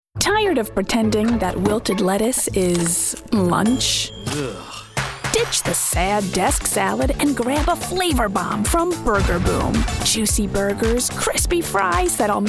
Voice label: Commercial